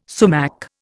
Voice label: "sumac", Indian

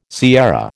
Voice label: "sierra", American

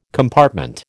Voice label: "compartment", American

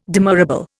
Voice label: "demurrable", Indian

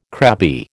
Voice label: "crabby", American

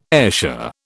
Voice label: "Ayrshire", British

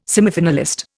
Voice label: "semifinalist", Indian

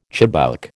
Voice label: "chibouk", American

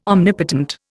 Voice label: "omnipotent", Indian

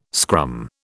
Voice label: "scrum", British

